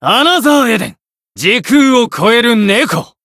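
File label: Voice Japanese; shigure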